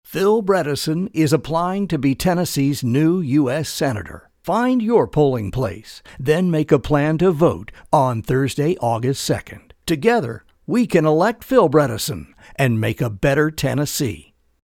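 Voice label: U.S. Senate Campaign Ad, male voice; Political Spots; Bredesen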